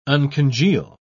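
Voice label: "uncongeal", British English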